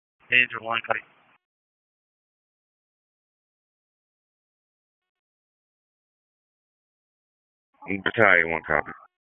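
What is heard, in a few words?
Public Safety Tactical, p25; wake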